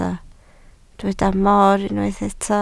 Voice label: "mor", Welsh

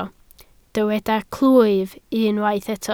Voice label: "clwyf", Welsh

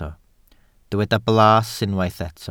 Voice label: "blas", welsh

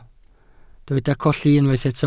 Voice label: "colli", Welsh

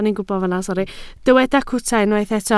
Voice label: "cwta", Welsh

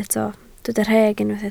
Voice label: "rheg", Welsh

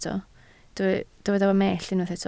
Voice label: "mellt", Welsh

